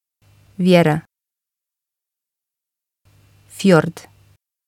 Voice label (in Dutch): "vjera", bosnisch; uitspraak; geloof